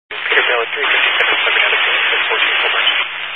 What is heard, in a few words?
Highway Patrol, Phoenix Metro West Area, scanner